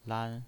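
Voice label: "lan", pinyin, Mandarin Chinese, first tone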